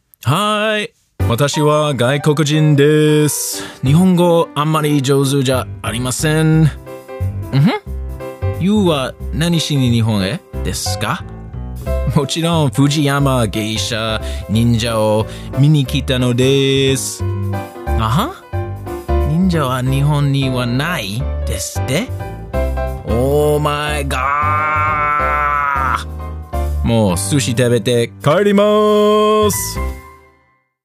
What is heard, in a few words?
japanese, USA, M